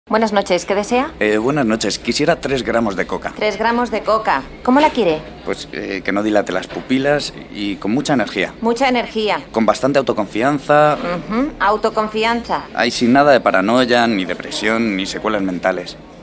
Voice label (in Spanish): Campañas Castellano